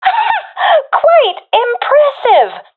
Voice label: sees enemy killed, professional fem; Counter Strike Model Pack; CS:GO